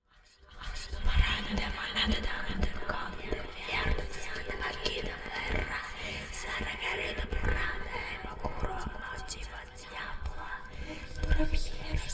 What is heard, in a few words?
astral spirit whispering